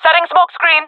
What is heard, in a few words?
throwing smoke, jungle fem; vo; Counter Strike Model Pack; CS:GO